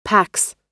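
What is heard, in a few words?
"packs", American English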